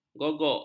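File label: "gogok", Malay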